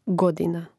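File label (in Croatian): "godina", hrvatski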